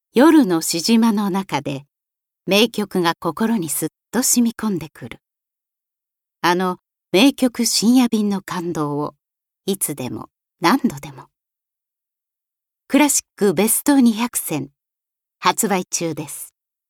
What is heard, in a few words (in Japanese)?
CDセットCM